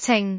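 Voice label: "cing6", Cantonese; microsoftHiuGaai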